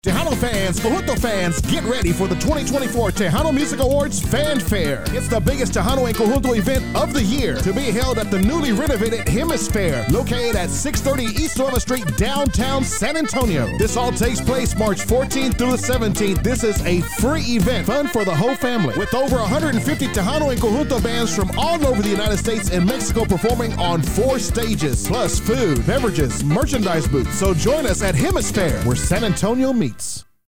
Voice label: radio spot; TMA FanFair; Hemisfair